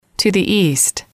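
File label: to the east, English, female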